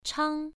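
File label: "chang" Mandarin, first tone, pronunciation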